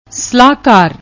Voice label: "ਸਲਾਹਕਾਰ", Punjabi